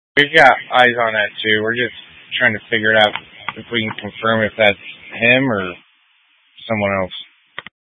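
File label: Incident Tactical 1, Scanner audio recording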